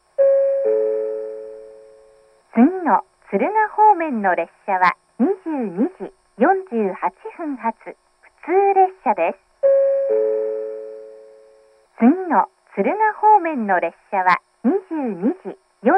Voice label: local, shin hikida; station

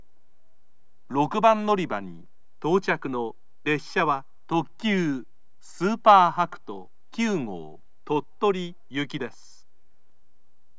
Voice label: limited express tottori, station, kyoto; sp-hakuto9

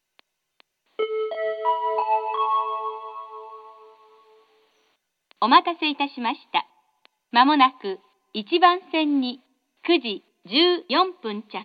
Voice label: local from akkeshi; kushiro; jr-hokkaido

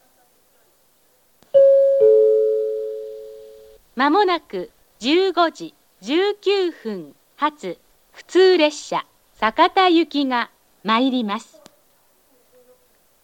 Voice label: local sakata, tsuruoka; station; jr-east

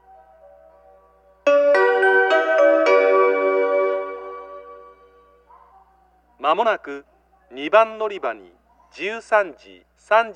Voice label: local shijonawate, nada; station

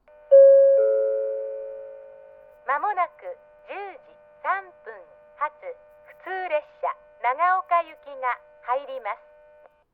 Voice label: local nagaoka, tsuchitaru; station; jr-east

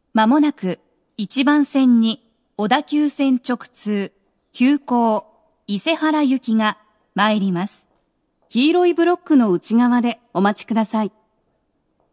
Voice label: express isehara, station, tokyo metro

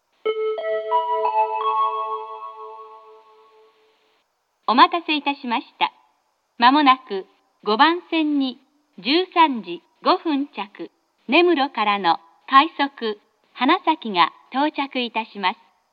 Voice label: rapid hanasaki from nemuro; kushiro; jr-hokkaido

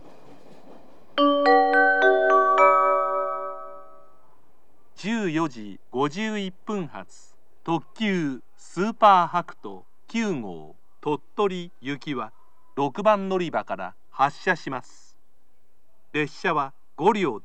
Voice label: limited express tottori, station, kyoto; sp-hakuto9

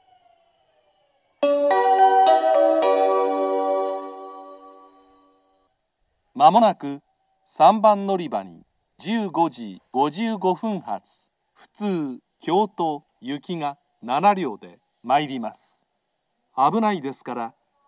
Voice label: local kyoto, tachibana; station

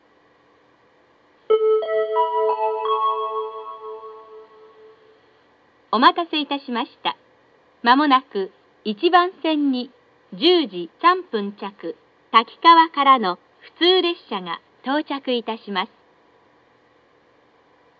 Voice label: local from takikawa, obihiro; station; jr-hokkaido

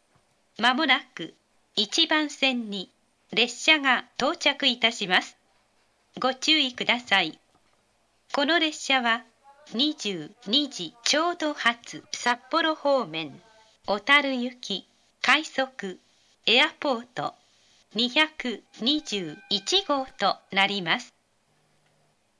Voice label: rapid airport, station; new-chitose-airport; jr-hokkaido; otaru

